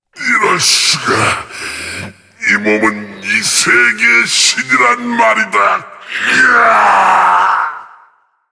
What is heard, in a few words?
cinematic voice; lineage2